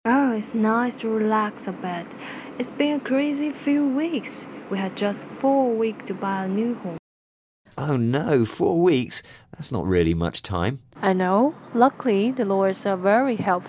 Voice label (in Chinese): 英式英语女声